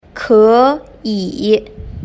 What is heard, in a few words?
"keyi", Chinese